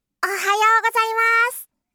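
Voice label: "ohayou gozaimasu", Japanese; aisatsu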